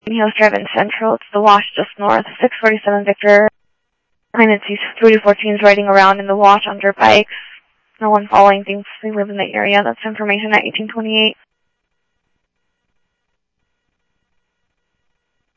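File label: Police Department, scanner audio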